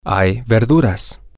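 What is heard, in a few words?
male, spanish; there Are Vegetables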